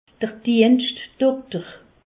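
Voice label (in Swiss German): "dienschtdokter", Alsace, Haut Rhin; medecine; médecin de garde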